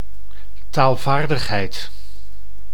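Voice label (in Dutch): "taalvaardigheid", Dutch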